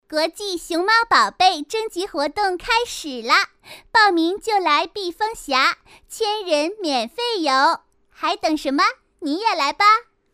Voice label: 女, 童声; 国际熊猫宝贝

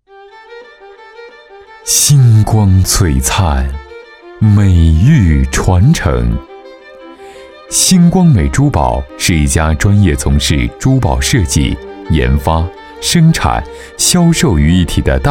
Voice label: 男, 公司产品解说

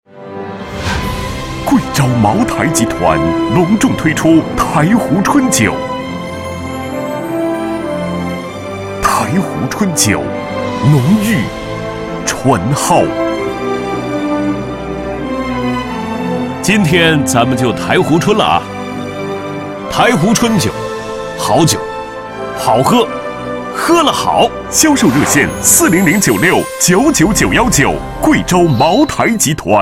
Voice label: 男 广告 激昂＋角色; 茅台集团

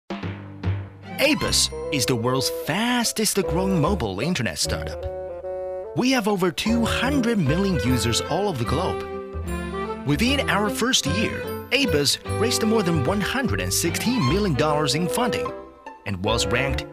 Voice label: 英男; 飞碟说; 英文飞碟说

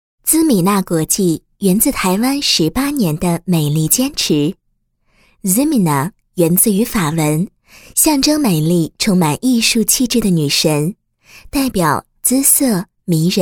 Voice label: 国语女声配音 解说 企业 宣传; 美容; 台湾姿米娜美容连锁

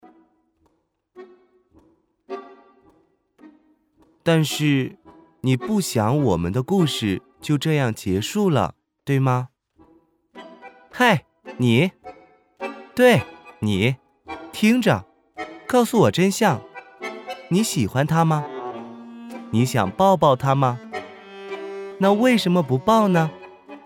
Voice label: 男 儿童剧 拥抱

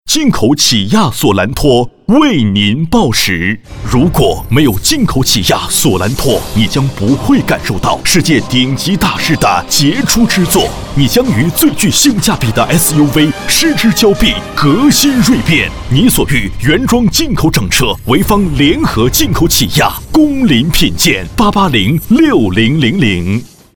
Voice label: 男 报时广告; 进口起亚索兰托

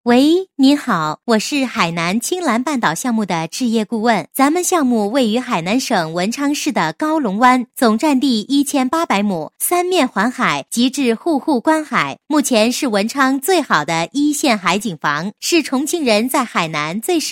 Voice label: 女 彩铃, 国语女声配音; 海南清澜半岛项目

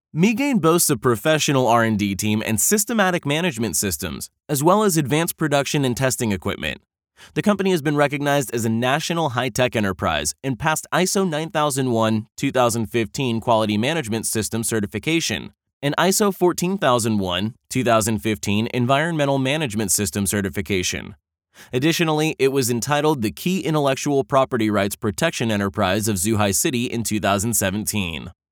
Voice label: 英文宣传片; 银河

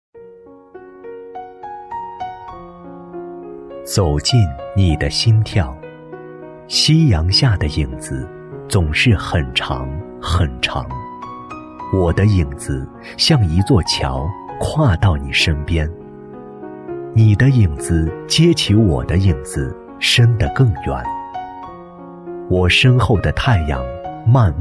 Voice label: 男, 旁白, 抒情朗诵

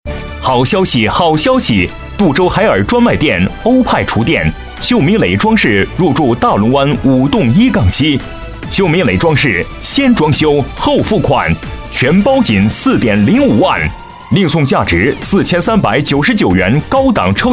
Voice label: 男 促销 好消息 装饰, 厚重; 国语男声配音

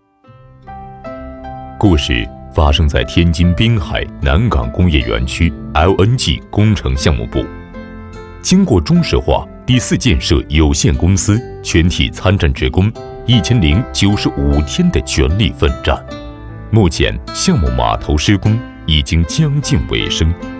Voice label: 男 旁白 安全, 浑厚

